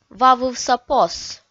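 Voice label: "вавывса пос", Komi, female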